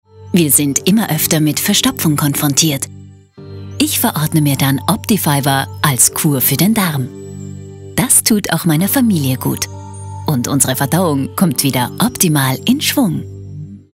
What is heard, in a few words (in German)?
Sprecherdemo TV; Optifibre